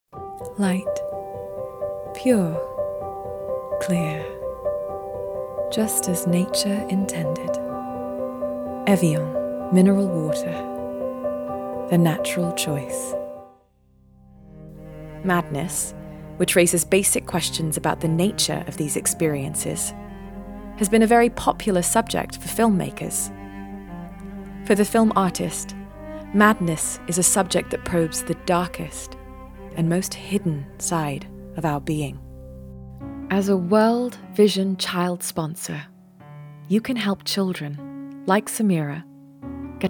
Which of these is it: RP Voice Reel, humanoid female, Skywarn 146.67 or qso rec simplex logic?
RP Voice Reel